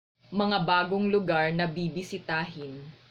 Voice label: Tagalog